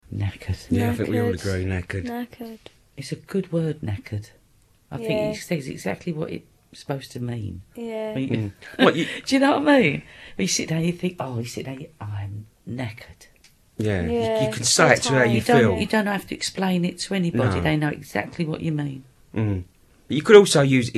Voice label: cockney; knackered; speech; dialects

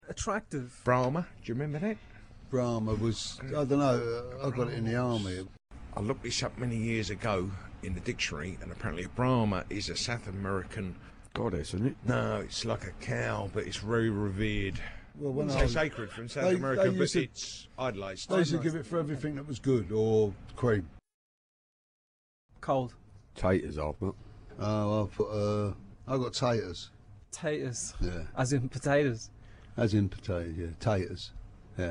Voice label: cockney; brahma; speech; dialects

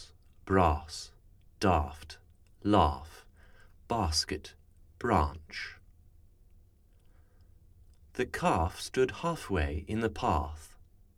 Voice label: Bath, rp; speech; dialects

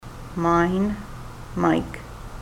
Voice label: mine, ontario

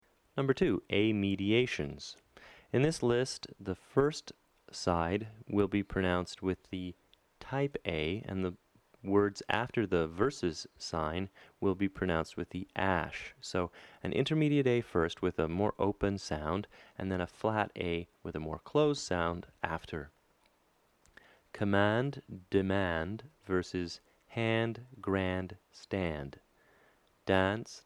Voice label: rp, phonetics; speech

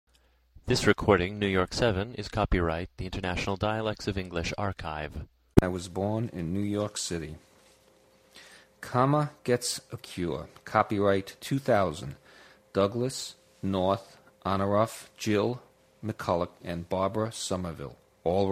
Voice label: newyork; speech; dialects; nyc

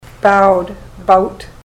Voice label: bowed bout, ontario

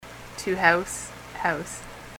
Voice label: to house house, ontario

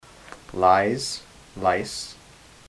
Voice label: lies lice, ontario

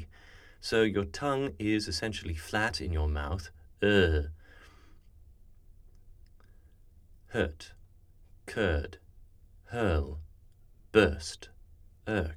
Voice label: Nurse, RP; speech; dialects

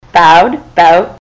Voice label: bowed bout, ontario

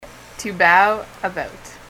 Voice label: to bow about, ontario

